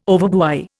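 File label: "overbuy", Indian English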